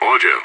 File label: affirmative, radio; SAS